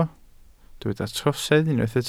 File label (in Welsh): "trosedd", Welsh